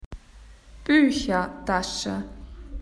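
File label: "schoolbag", Australian English; PictureDictionary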